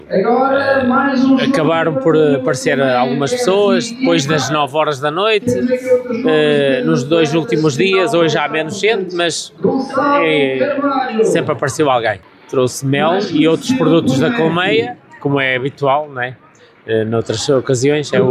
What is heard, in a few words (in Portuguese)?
vox pop produtores